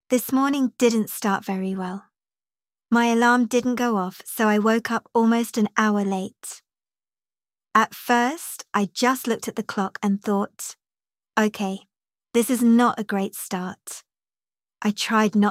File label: british